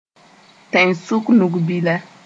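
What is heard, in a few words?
"tẽn-sʋk-nug-bila", Mooré; middle finger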